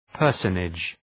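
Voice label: "personage", English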